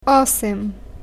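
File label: "eight", Bulgarian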